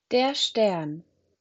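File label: "Stern", German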